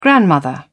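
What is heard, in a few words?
"grandmother", English, British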